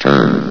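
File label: "turn", male synthetic announcer voice; source engine; hl1; video games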